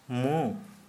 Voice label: Santali nose